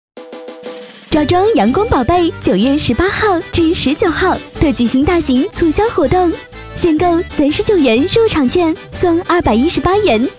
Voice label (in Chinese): 促销 推荐 年轻 活力 阳光